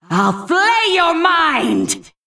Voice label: Female Old God Creature Attack